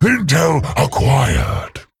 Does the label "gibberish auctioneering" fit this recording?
No